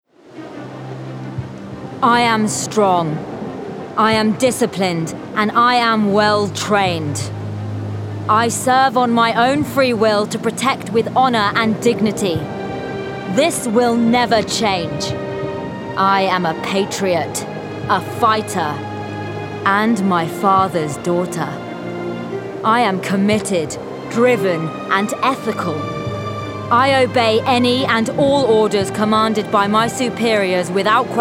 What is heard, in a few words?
VO Gaming Narrative